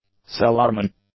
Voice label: "cellarman", English